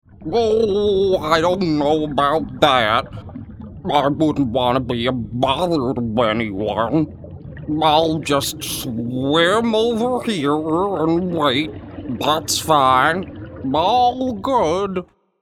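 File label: Underwater, Animation Reel. Playful, Energetic, Characters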